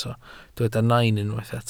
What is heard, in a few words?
"nain", Welsh